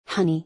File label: "Honey", English